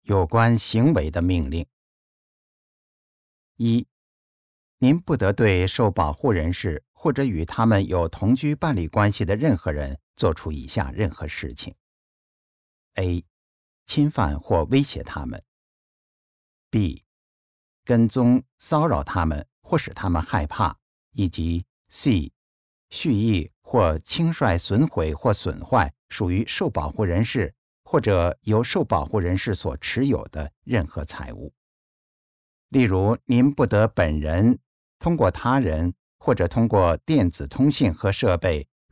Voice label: orders about behaviour mandarin

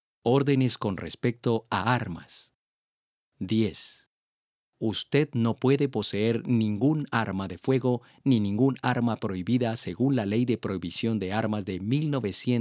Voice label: spanish; orders about weapons

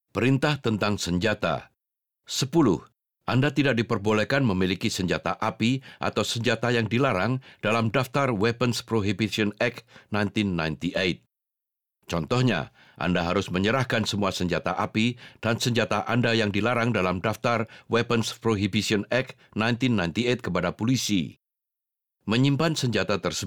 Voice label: indonesian; orders about weapons

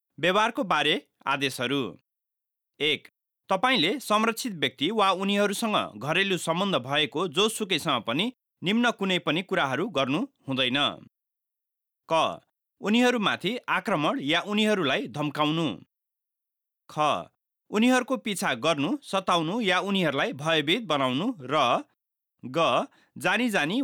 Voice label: nepalese; orders about behaviour

